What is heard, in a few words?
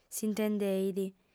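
"s'intendeit", Sardinian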